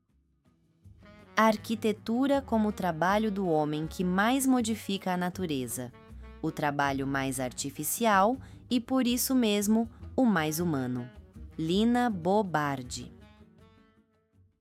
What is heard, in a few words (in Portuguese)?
narracao; citacao arquitetura